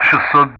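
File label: Speech Sound Russian; DCS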